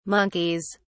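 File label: "monkeys", English, British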